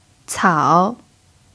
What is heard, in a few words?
"cao3", chinese